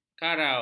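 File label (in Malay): "karau", Malay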